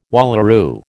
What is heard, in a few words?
"wallaroo", American